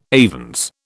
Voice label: "avens", British

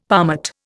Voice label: "palmate", Indian; Dictionary

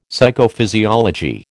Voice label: "Psychophysiology", American

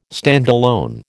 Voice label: "standalone", American

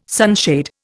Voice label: "sunshade", Indian

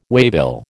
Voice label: "waybill", American

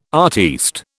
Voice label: "artiste", British

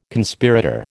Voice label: "Conspirator", American

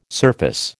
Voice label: "surface", American

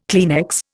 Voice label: "Kleenex", Indian

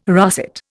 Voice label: "russet", Indian

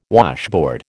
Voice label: "washboard", American

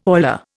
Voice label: "oiler", Indian

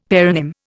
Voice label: "paronym", Indian; Dictionary

